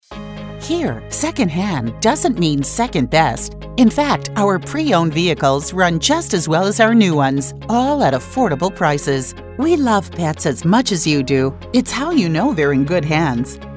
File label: Messages On Hold, On-Hold Music & Messages-English